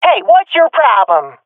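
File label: taking fire friendly, swat female; Counter Strike Model Pack; CS:GO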